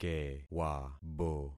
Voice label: "kewabo", concat syllables; Affixing experiment